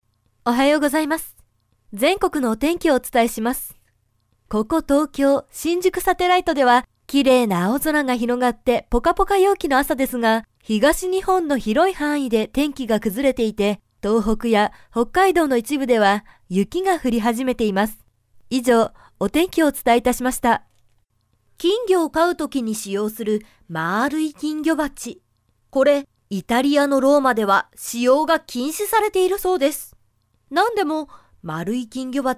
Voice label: corporate